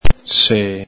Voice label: "see", Veneto